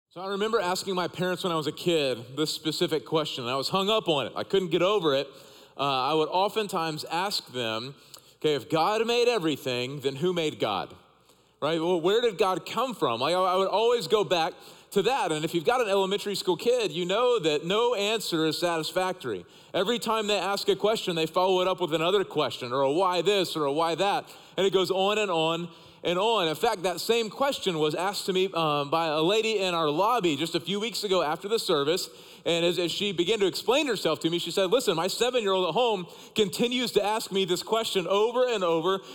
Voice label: Sermon English